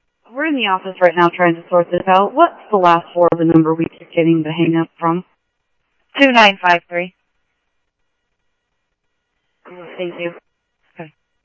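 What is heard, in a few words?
Simulcast police department, scanner audio trunk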